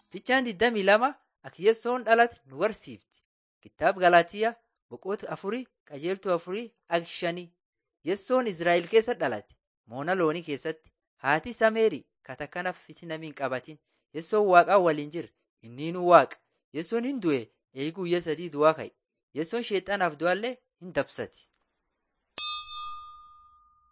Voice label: borana sakuye

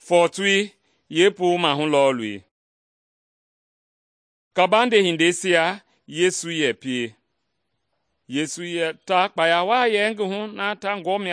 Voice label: mende bsl nt drama; mende kpa; good news; bible

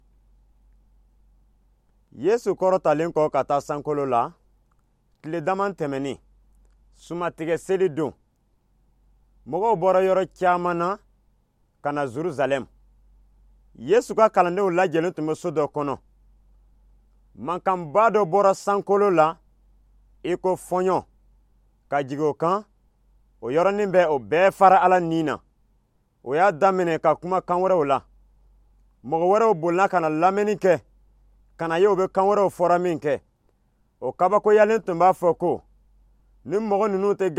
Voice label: jula, bible stories; power

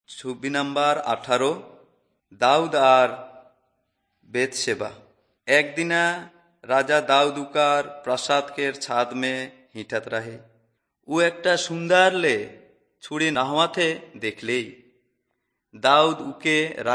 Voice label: servants of god urao, kurukh drama

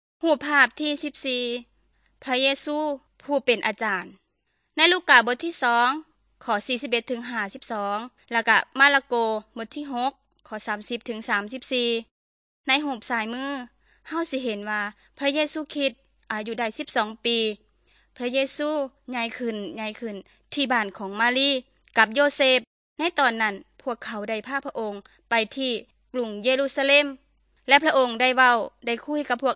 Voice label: good news thai northeastern

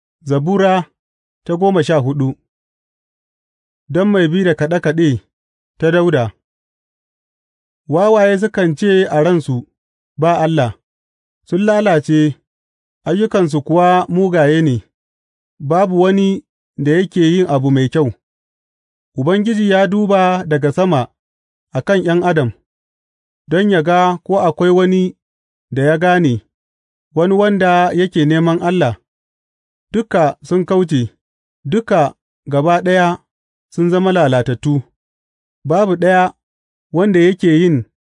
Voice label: hausa bible drama, psalms; hausa bsn nt drama

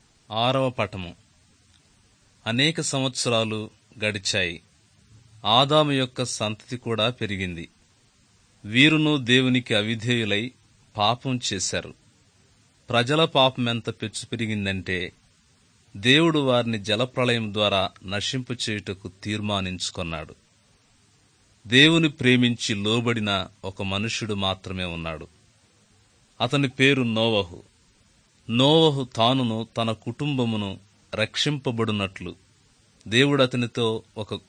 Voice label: good news telugu

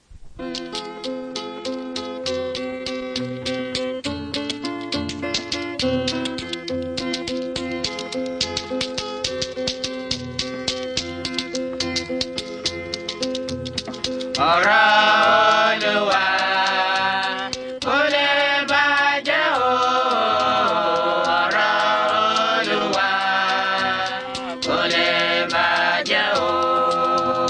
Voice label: words of life yoruba; yoruba bible

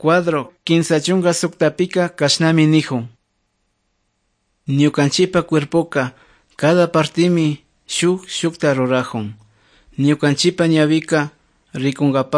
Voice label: good news quichua otovalo

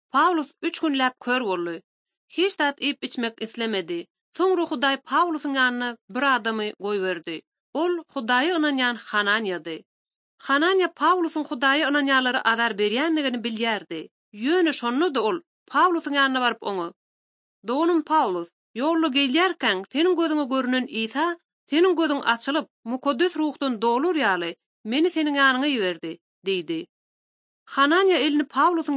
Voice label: turkmen, bible translation drama

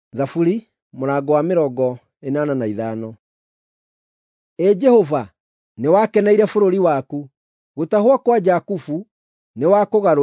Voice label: psalms, gikuyu drama

